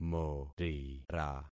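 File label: "morira", concatenated syllables; Affixing experiment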